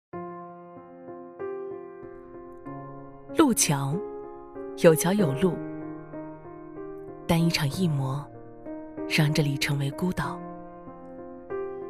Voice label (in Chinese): 女 旁白 路桥